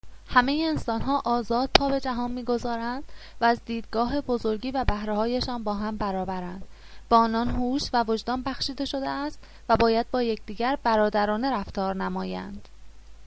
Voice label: Persian